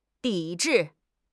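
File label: "抵制", Mandarin Chinese; HSK; HSK6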